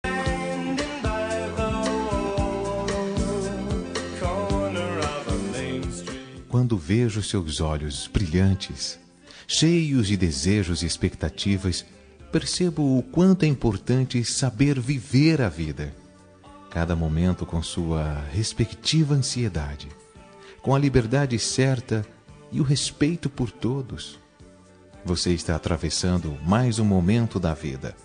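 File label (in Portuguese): neta masculina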